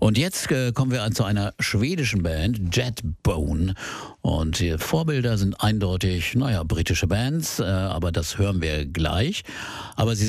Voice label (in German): Ansage